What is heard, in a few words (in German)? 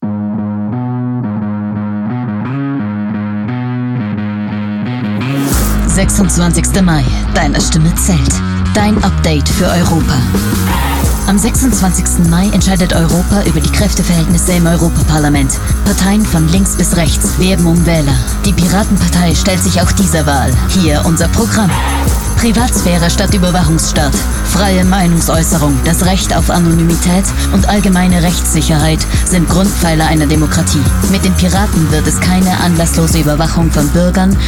Radiospot; Brasilien